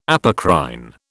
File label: "apocrine", British